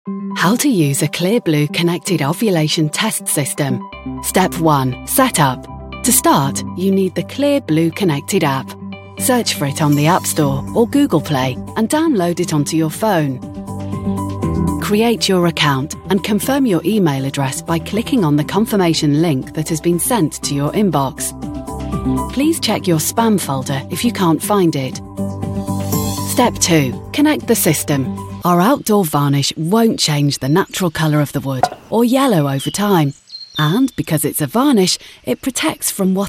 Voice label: explainers

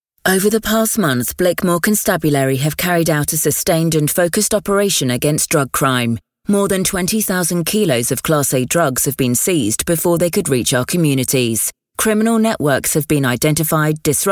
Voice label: serious straight read

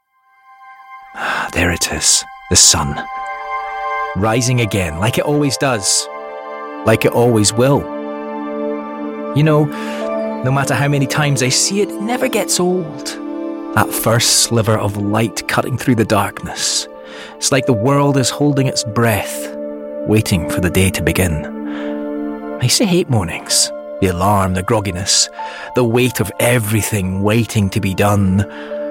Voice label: character, Young Scottish Voiceover

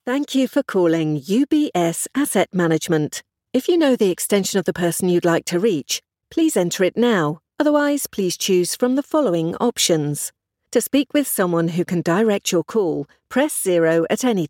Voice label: telephone

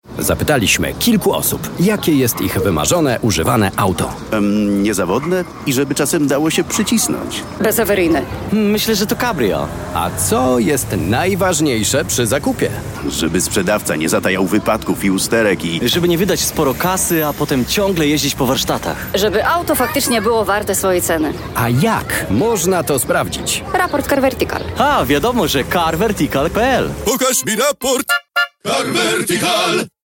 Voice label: polish voice commercial

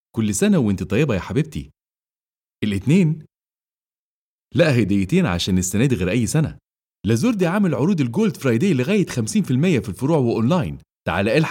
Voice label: arabic voice continuity radio

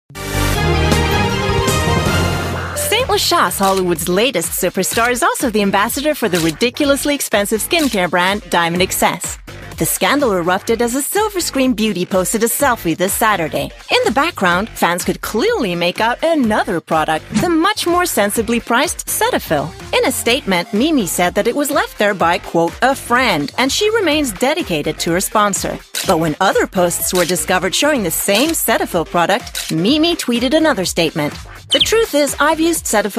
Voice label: Swedish documentary English

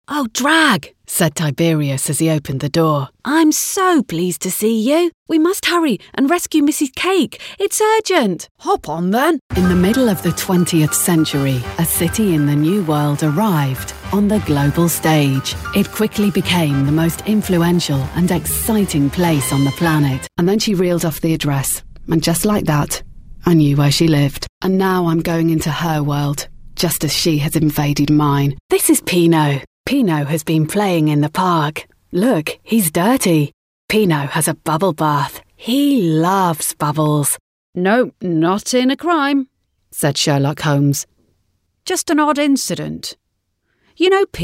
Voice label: audiobooks, Engaging Fun Sexy Female Voiceover

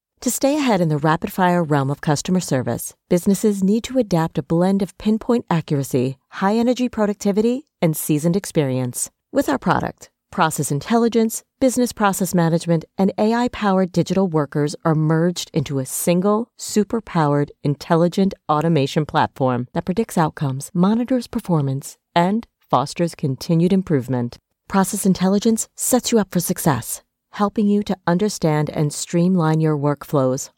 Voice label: american voice corporate

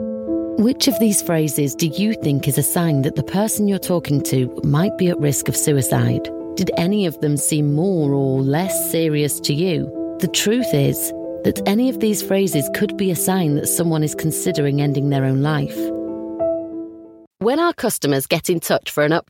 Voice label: corporate, Northern Manchester accent

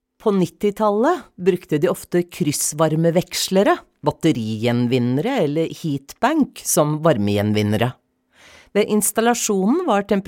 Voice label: Norwegian female corporate

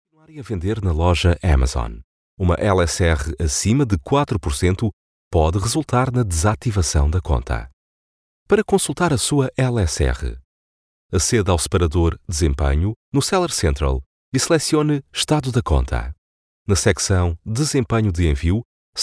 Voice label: Portuguese voice e-learning

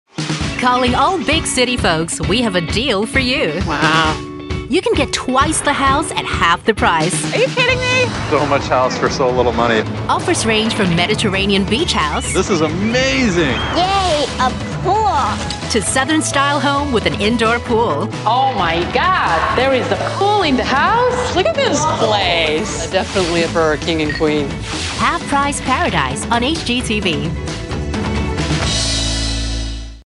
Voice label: singapore voice continuity radio

